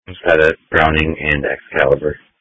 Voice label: Police Tactical Encrypted; sdr